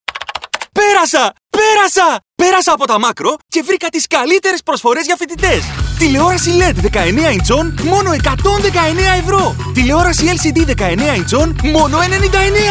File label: Young Adult Commercials Greek